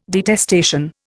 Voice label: "detestation", Indian

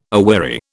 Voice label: "aweary", British

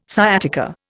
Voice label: "sciatica", Indian

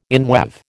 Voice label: "inweave", American

